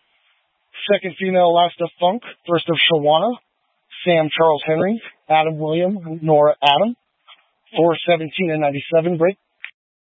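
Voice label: Incident Tac, Scanner audio recording; Incident Tac 3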